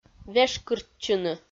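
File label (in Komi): "вешкыртчыны", Komi, female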